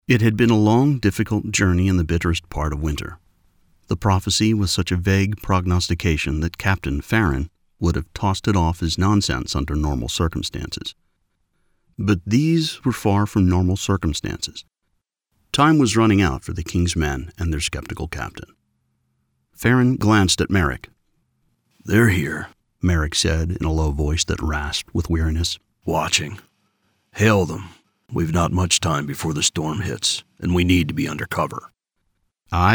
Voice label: Medieval Accents Dialogue Fantasy